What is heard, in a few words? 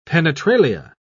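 "penetralia", English, British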